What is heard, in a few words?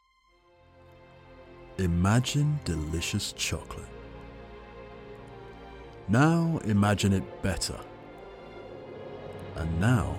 Commercial Natural Speaking Voice